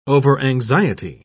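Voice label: "overanxiety", British English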